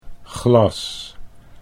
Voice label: "glas", Dutch

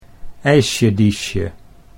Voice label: "eisjediesje", Dutch

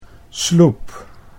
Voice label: "sloep", Dutch

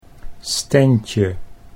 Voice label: "standje", Dutch

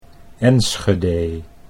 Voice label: "Enschedee", Dutch

